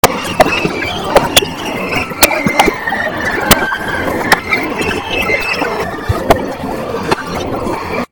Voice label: Child